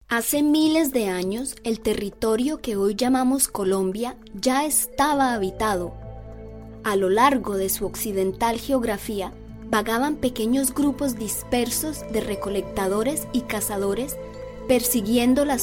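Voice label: Latin American Spanish, Documentary; voice demos; GoLocalise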